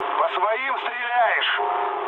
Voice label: player friendly fire, mood low, speech ru

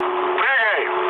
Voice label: bailout, mood low, speech ru; War Thunder

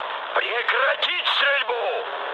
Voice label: player friendly fire, mood low, speech ru

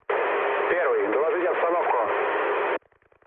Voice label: chat, speech ru; War Thunder

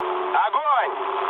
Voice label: attack position, mood low, speech ru; War Thunder

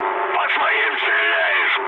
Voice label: player friendly fire, mood high, speech ru; War Thunder

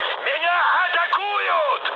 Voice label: aircraft damaged, mood high, speech ru

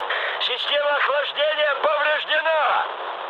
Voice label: damaged water, mood high, speech ru; War Thunder

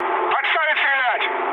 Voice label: player friendly fire, mood low, speech ru

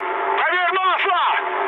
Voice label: damaged oil, mood high, speech ru; War Thunder

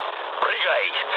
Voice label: bailout, mood low, speech ru; War Thunder

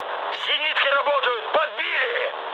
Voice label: damaged aa, mood low, speech ru; War Thunder

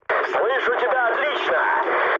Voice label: chat, speech ru; War Thunder

